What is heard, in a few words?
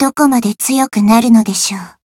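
Voice growth Japanese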